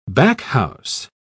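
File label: "backhouse", British English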